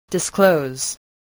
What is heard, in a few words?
"disclose", english spelling